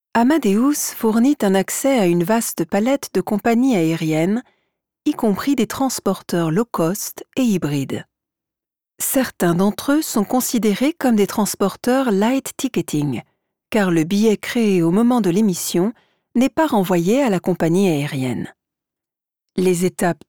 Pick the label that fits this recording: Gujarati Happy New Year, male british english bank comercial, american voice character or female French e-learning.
female French e-learning